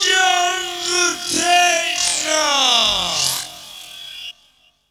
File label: Way Jungle Techno, Vocals